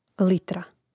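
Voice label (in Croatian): "litra", Croatian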